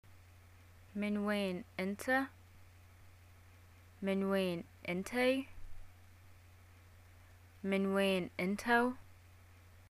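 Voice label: where r u from, Bahraini arabic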